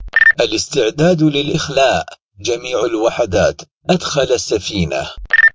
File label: egyptmid radios